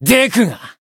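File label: Voice finish, Japanese; shigure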